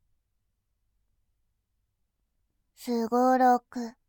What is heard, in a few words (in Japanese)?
「すごろく」, ASMR